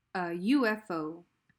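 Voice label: "a ufo", English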